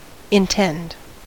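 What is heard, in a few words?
"intend", American English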